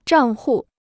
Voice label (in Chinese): "账户", Chinese